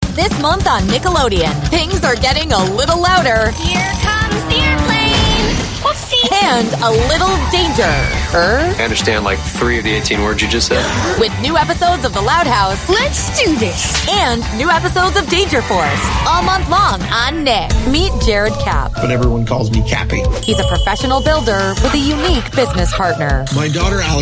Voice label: english american promos

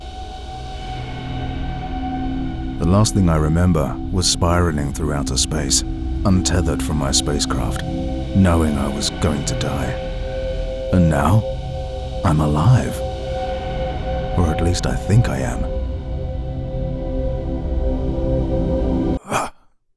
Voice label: english british video games

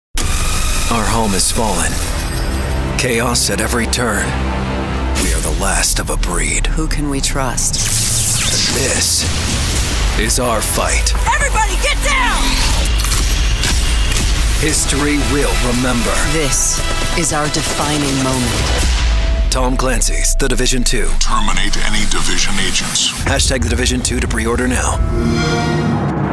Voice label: english american movie trailers

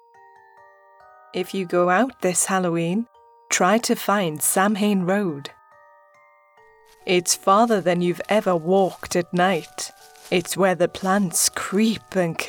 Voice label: english british podcasts

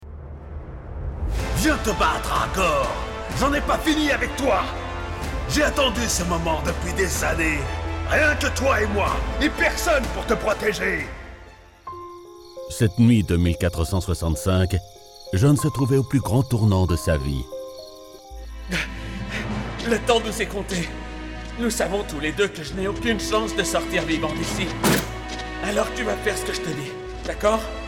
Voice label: french video games

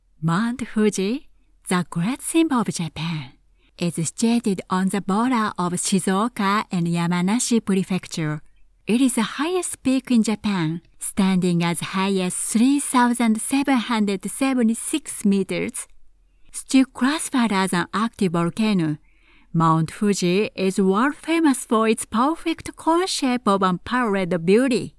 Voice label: english commercial

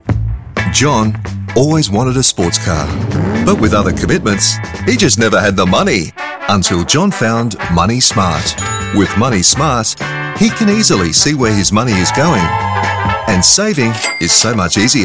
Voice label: english australian e-learning

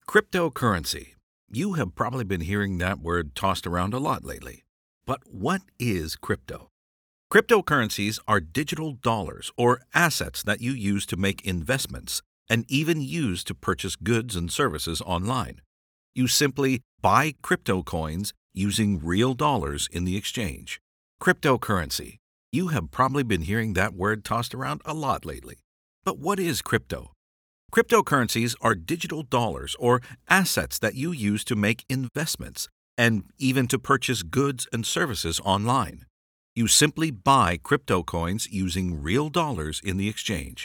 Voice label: english canadian documentaries

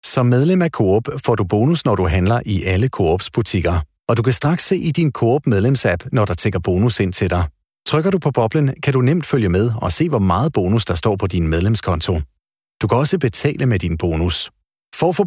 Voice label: Danish corporate videos

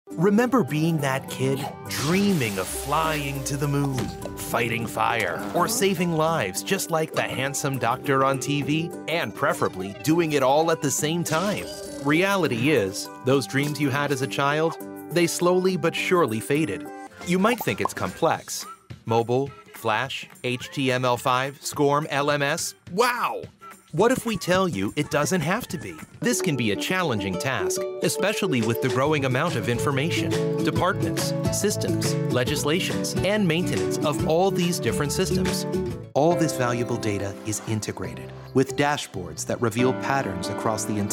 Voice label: english american explainer videos

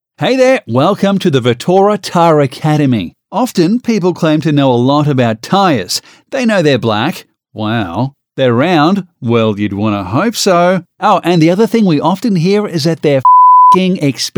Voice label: english australian commercial

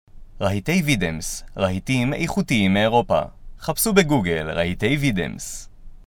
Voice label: hebrew corporate videos

